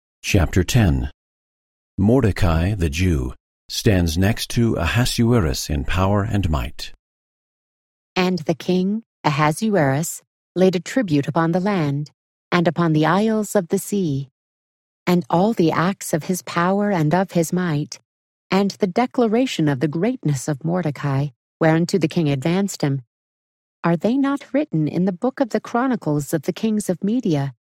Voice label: esther 10 female voice; the old testament